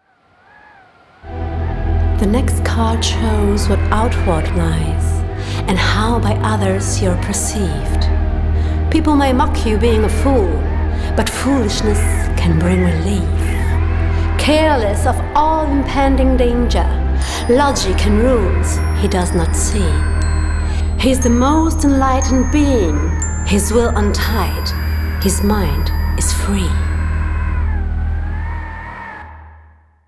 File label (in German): Hörbuch englisch